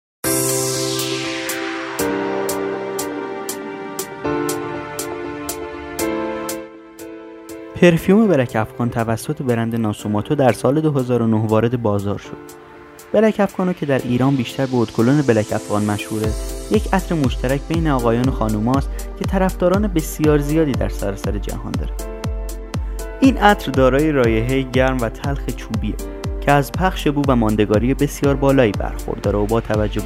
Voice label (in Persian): VOICE PERSIAN; NASOMATTO BLACK AFGANO